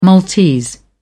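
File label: "maltese", English, uk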